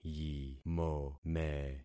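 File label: "yimome", concatenated syllables; Affixing experiment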